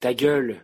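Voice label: "ta gueule", French pronunciation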